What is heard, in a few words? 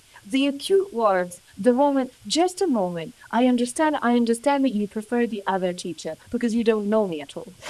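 voice acting, es, female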